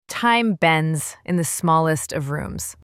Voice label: voice sample, Young and popular, this playful American female voice; Jessica; Shot Studio